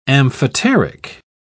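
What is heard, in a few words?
"amphoteric", British English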